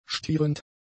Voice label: "stierend", German; adjectives

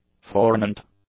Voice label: "fornent", English; adjectives

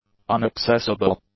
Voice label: "unaccessible", English; adjectives

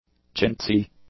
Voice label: "chintzy", English; adjectives

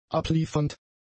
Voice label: "abliefernd", German; adjectives

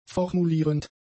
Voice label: "formulierend", German; adjectives

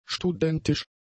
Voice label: "studentisch", German; adjectives

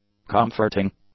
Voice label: "comforting", English; adjectives

